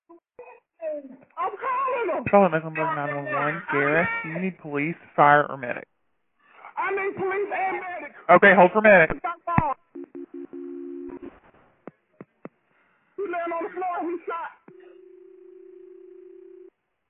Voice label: 911 call